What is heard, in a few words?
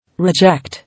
"Reject", English